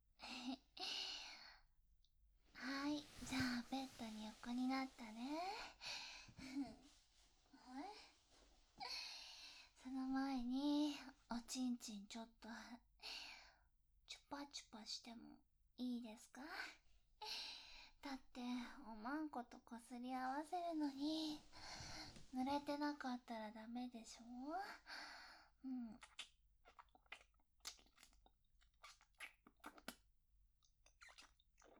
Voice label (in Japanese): 二回戦 バイノーラル